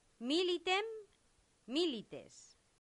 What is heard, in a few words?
"militem milites", latin; santillana